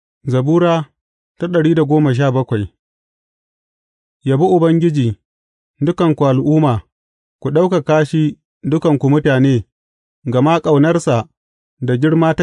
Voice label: hausa bible drama, psalms; hausa bsn nt drama

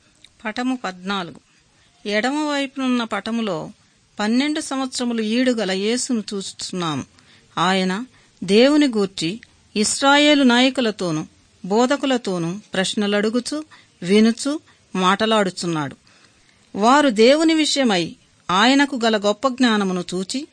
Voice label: good news telugu

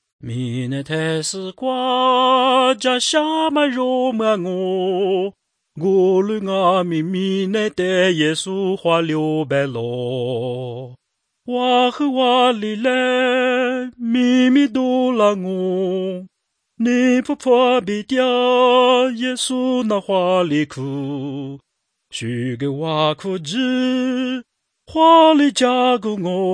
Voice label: words of life lisu southern

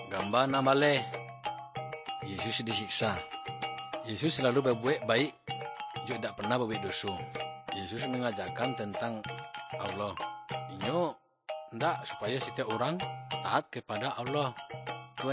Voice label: good news minangkabau muko muko; kaba ilo

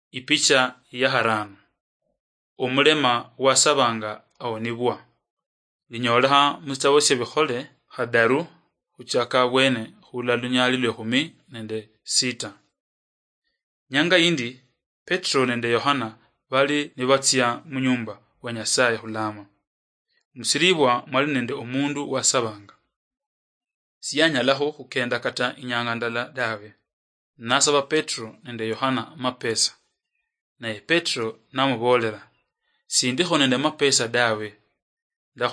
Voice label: acts of the holy spirit luyia marachi, drama

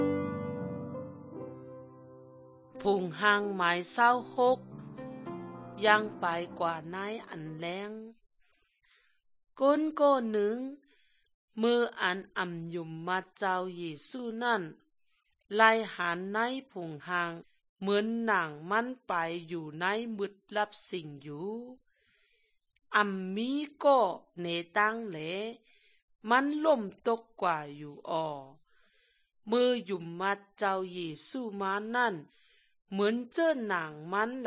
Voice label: good news shan eastern, drama

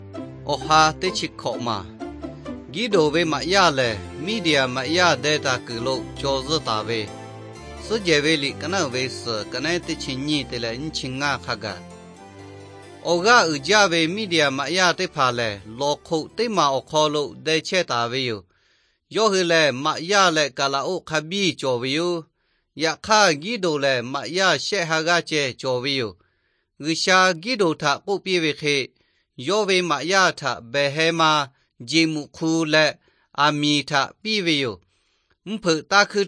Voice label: victory through god lahu na, nt drama; lahu thailand bible society

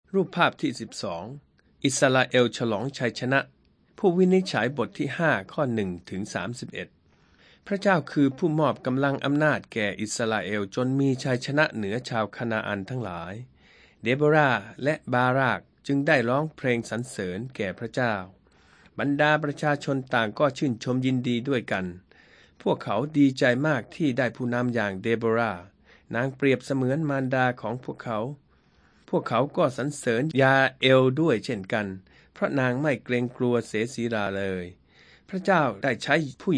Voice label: thai central

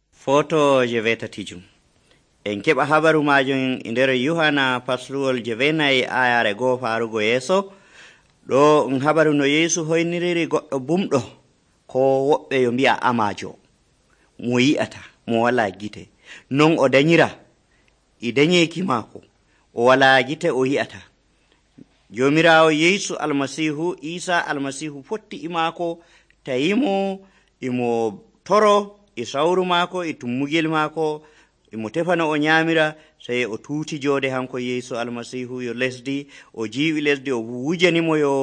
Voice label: fulfulde, drama; fulfulde adamawa abc nt drama

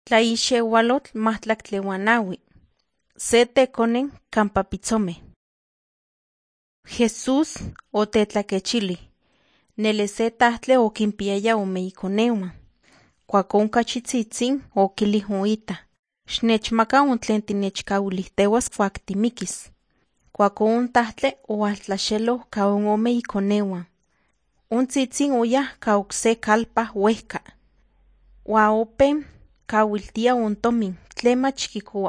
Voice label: nahuatl guerrero tlapa; the bible league nt drama

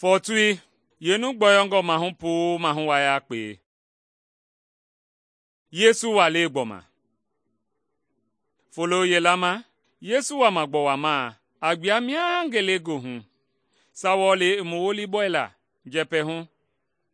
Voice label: mende bsl nt drama; good news mende kpa

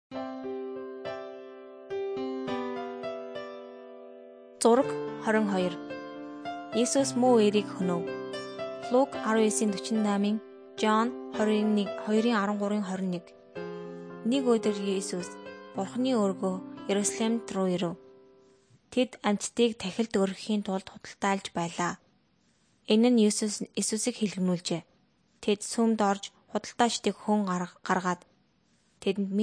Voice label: mongolian

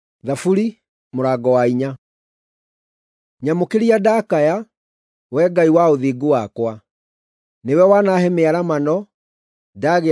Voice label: psalms, gikuyu drama

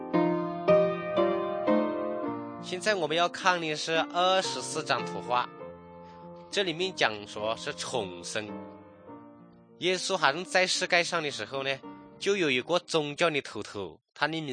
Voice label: good news shan, drama

